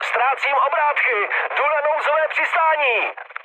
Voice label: damaged engine, speech cze, mood med; War Thunder

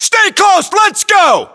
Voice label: US order move follow, battlechatter, english

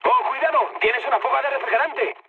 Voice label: damaged water, mood high, speech; War Thunder

